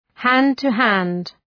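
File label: "hand-to-hand", English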